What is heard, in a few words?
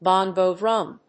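"bongo drum", English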